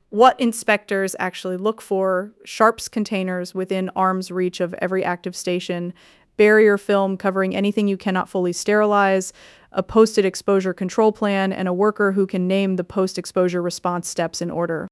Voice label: inspector check calm mentor; bloodborne pathogens; compliance